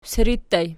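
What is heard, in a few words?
siri ergative plural, Archi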